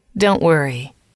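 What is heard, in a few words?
dont Worry, english, female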